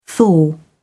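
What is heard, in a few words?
"thaw", British English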